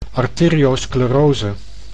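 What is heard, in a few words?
"arteriosclerose", Dutch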